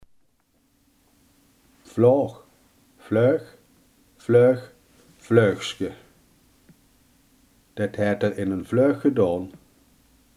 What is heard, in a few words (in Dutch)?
"vlaag", limburgse